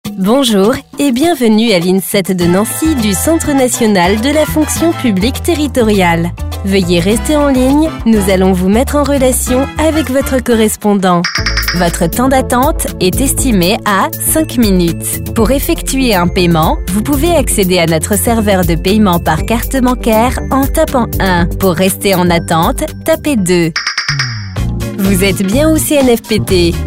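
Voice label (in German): telefonansagen sprecher French; Native Speaker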